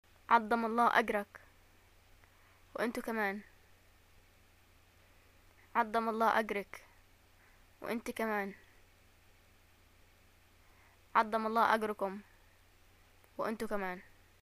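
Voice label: greeting death, Yemeni Arabic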